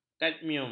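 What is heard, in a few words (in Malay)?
"kadmium", Malay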